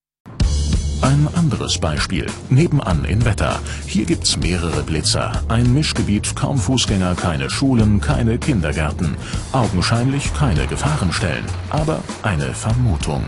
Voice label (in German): doku; ard; Muttersprache Deutsch